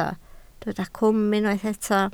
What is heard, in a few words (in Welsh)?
"cwm", Welsh